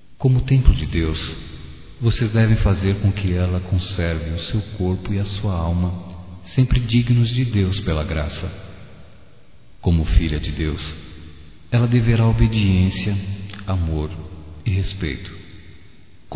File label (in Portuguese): Mensagem Batizado Para Padrinhos Masculino